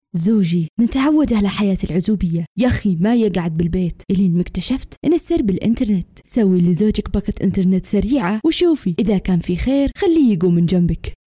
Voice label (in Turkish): Arapca Kadin Suudi Arapcasi, seslendirme; kadin sesler